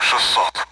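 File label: Speech Sound Russian Common AWACS Numbers; DCS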